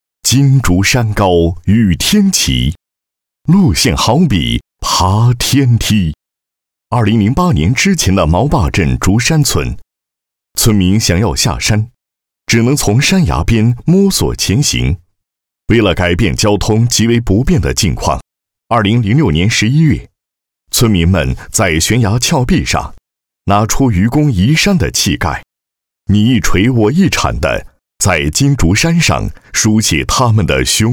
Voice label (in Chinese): 男 宣传片 学校宣传片